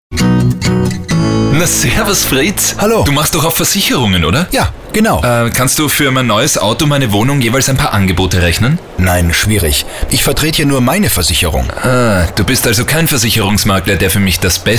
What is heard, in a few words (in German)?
Werbespot; Versicherungsmakler